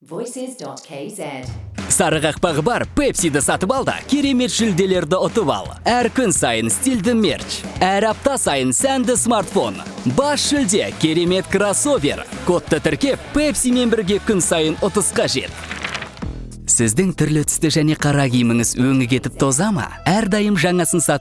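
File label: kazakh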